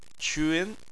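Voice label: "qun", Chinese, tone 2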